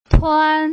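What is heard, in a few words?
"tuan", Putonghua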